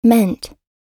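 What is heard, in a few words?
"meant", American English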